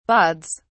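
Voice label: "bauds", British English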